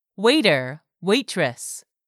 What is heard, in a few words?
"waiter waitress", English; 3000 words